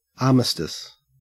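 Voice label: "armistice", Australian English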